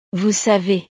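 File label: "vous savez", French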